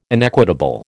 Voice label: "inequitable", American